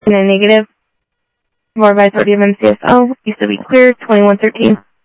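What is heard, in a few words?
Sheriff East County, scanner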